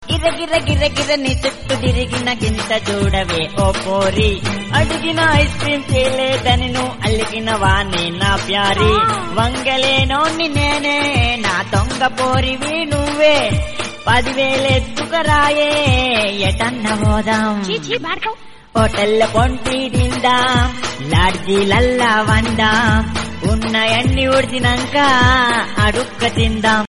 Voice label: comedy telugu

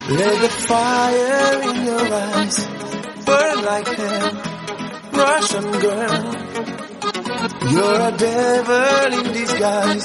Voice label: russian girl

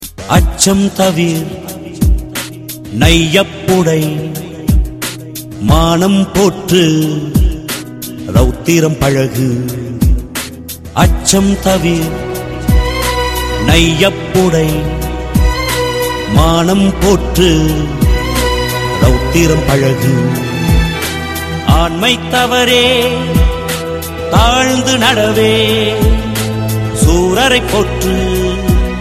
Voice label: Tamil saying ringtone

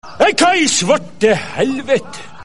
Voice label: Norwegian cursing

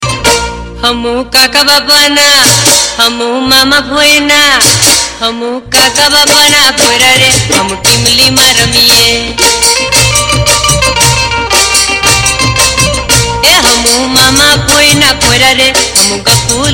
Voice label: funny gujarati

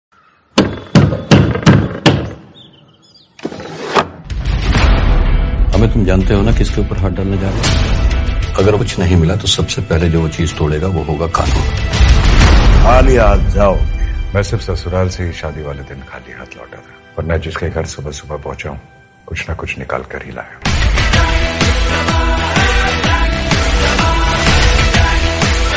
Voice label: dialogue ringtone; raid

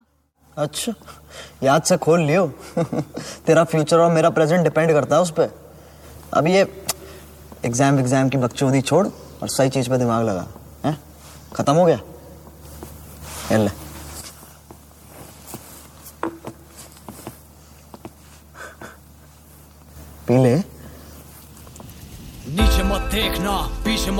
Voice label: dialogue ringtone; carryminati

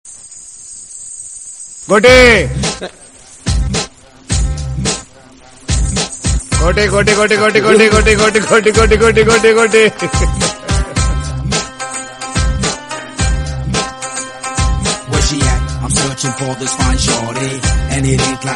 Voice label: goti funny, ringtone; akshay

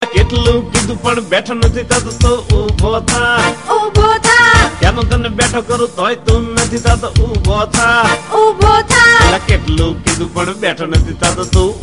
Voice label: funny gujarati

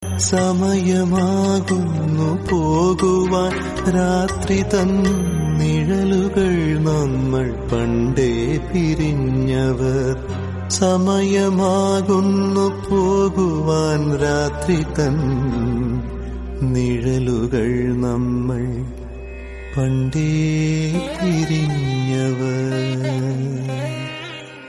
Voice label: malayalam kavitha

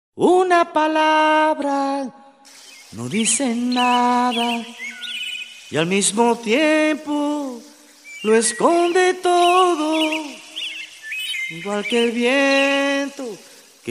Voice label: spanish good morning ringtone

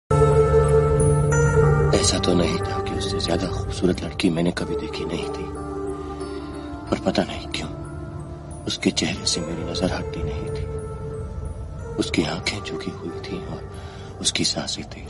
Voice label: romantic dialogue; veer zaara

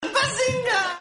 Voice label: "bazinga" german

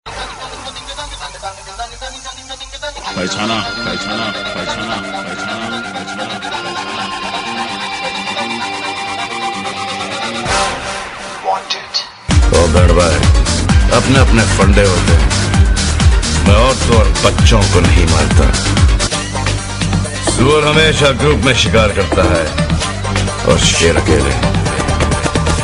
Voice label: dialogue ringtone; wanted

